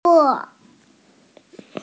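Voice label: "le4", Chinese, female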